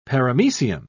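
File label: "paramecium", British English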